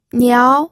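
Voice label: "niao", second tone, pinyin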